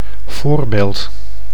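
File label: "voorbeeld", Dutch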